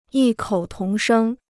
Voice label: "异口同声", Chinese